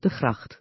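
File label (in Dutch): "de gracht", Dutch; Natuur samenvatting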